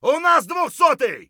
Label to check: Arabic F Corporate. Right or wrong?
Wrong